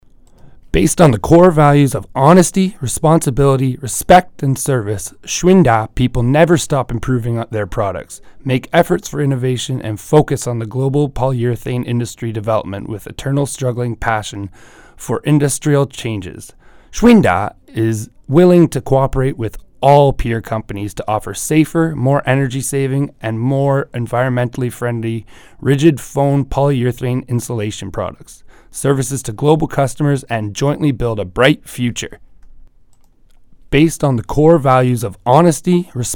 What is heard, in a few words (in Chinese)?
外籍 非金属化工机械厂 宣传片; 宜兴